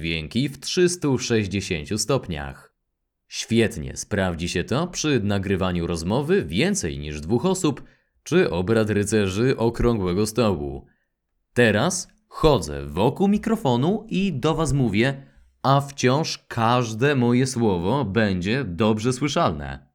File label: axis voice sample omnidirectional